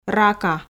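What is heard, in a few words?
"рака", Komi, female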